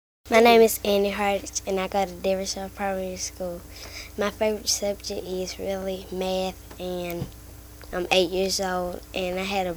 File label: Georgia Trailer Park BF age 8